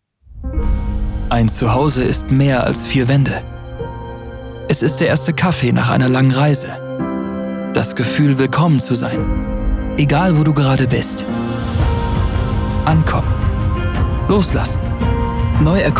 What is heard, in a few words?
Spot, Commercial (Werbung), German; Werbung Airbnb Kino Spot